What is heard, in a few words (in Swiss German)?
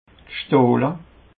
"gstohle", alsace